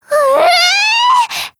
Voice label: LOW MOOD, JP